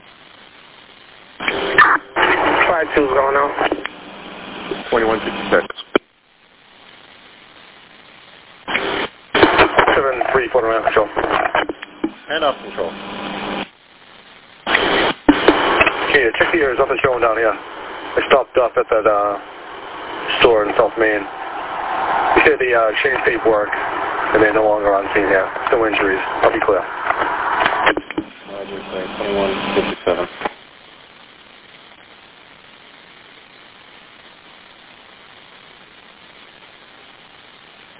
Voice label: Police Radio